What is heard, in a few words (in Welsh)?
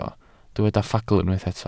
"ffagl" Welsh